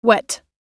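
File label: "wet" English word; oxford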